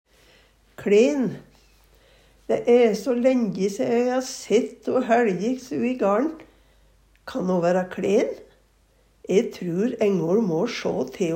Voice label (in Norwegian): kLen, Numedalsmål